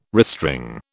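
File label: "restring", British English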